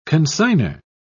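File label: "consigner", English, British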